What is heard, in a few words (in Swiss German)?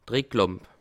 "ricklamp", alsace